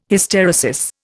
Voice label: "Hysteresis", Indian